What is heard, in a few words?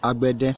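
"agbede" Nigerian Pidgin, male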